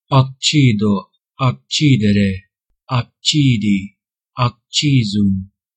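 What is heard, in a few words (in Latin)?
"accido, accidere, accidi, accisum", Ecclesiastical Latin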